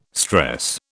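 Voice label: "stress", British English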